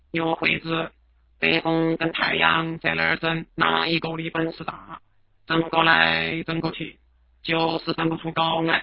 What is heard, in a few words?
Chengdu mono